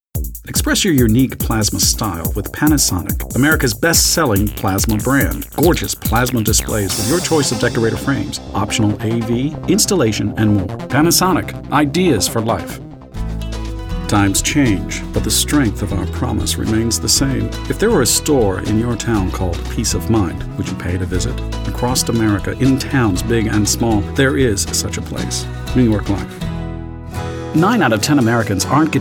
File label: english males